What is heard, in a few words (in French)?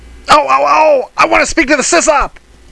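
"chat", French; HyperBBS 2.0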